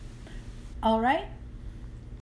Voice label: "Alright" US accent